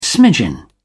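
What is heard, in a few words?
"smidgen", British English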